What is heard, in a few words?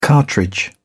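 "cartridge", English, British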